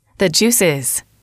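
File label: "the juices", English, female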